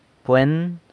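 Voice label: "pwen", bridge, San Lucas Quiaviní Zapotec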